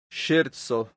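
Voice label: "ŝerco", esperanto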